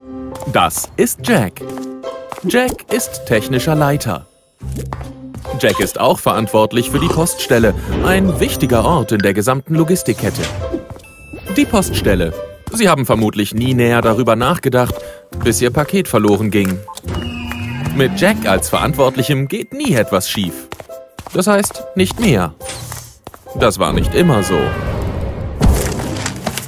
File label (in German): erklaerfilm; oce